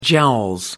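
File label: "jowls", British English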